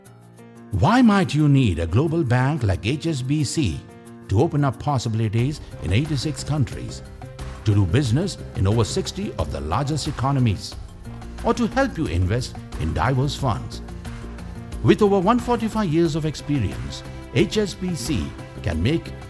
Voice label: promo eng; hsbc